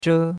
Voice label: "zhe1", chinois; Cours-de-Chinois